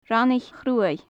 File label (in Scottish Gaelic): "raineach chruaidh", Gaelic; hard-fern; Faclan Nàdair